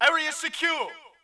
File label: brit area secure; dod; de dod caen